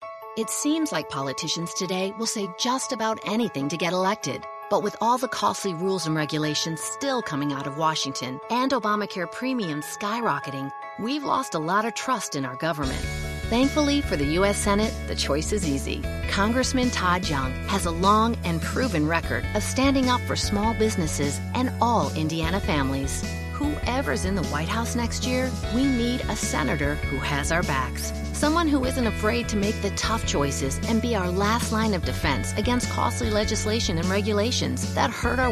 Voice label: Radio Spot; NFIB; Todd Young